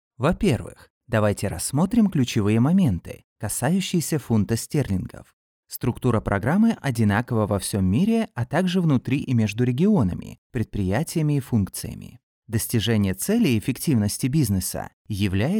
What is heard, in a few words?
russian e-learning